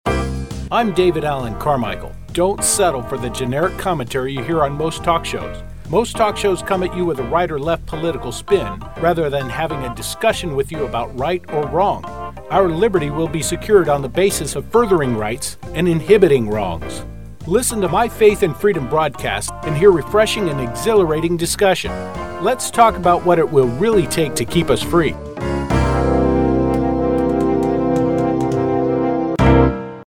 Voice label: spot